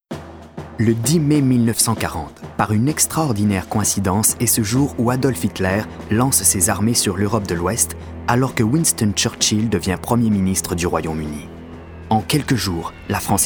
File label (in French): narration documentaire